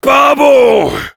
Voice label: Heavy negative vocalization fr

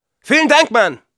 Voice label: thanks for the teleporter, de; Scout

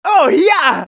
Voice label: cheers, de

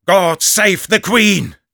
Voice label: battle cry, de; Sniper

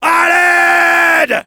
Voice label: Soldier help me, fr

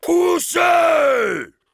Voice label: Heavy cart moving forward offense, fr

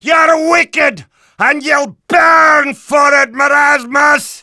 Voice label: bad magic; Demoman

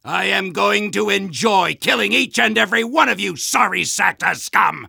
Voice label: Soldier taunts

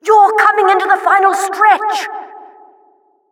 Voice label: Announcer race general

